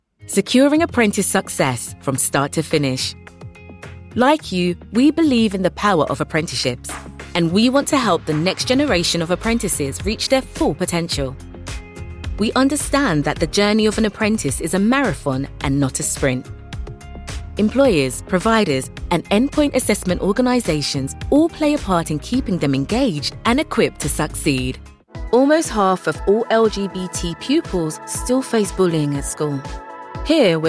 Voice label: narration, explainers, TV adverts